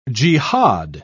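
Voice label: "jehad", British English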